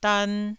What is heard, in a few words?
"dan1", Taiwanese syllable